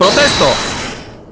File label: "Objection", Spanish; Kristoph